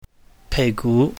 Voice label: "peigu", Cantonese